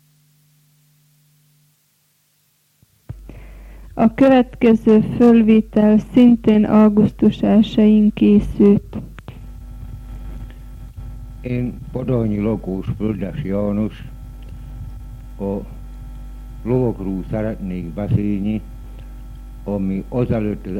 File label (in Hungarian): nyelvjaras gyujtesek